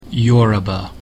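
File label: "Yoruba", English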